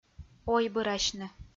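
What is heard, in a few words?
"ойбырасьны" Komi-Zyrian, female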